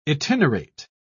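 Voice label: "itinerate", British English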